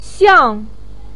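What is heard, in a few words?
"xiang", Mandarin pinyin, fourth tone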